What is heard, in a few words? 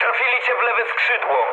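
left wing, mood low, Polish speech; War Thunder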